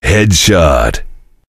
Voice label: cheer; svencoop; voice commands; Duke